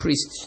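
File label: "priest", Nigerian Pidgin, male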